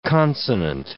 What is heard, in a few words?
"consonant", English